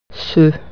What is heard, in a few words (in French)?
"these", French